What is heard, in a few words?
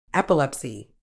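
"epilepsy", British English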